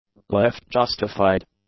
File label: "left-justified", english; adjectives